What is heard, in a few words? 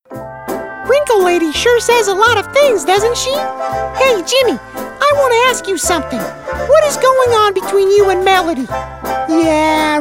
Cartoon